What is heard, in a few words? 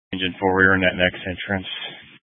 Grand Junction Fire Department Dispatch